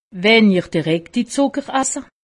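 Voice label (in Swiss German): "zucker", Alsatian; medecine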